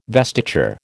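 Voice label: "vestiture", American English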